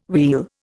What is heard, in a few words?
"wheal", Indian